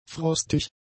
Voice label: "frostig", German; adjectives